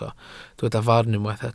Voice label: "farn", welsh